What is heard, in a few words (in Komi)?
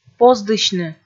"поздысьны", Komi